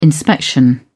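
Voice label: "inspection", British English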